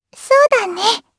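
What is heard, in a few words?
Vox Aff Japanese; Lavril; kingsraid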